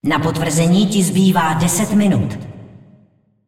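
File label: announcer female tournaments lockin 10min, hu_hu; cs cz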